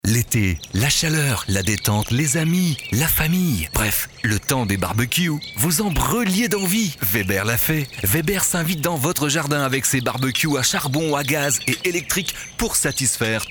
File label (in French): radio pub spot